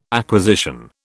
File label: "acquisition", British